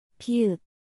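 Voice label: "ㅂ", Korean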